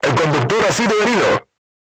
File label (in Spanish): voice message driver wounded, spanish; crew tanks